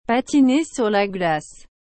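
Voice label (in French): "patiner sur la glace", French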